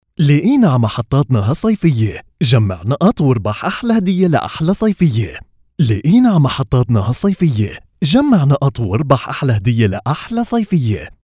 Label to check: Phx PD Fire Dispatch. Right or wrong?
Wrong